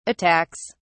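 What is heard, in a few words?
"attacks", English, British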